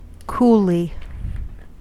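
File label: "coolly", American English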